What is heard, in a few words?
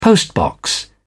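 "postbox", British English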